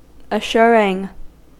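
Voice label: "assuring", US English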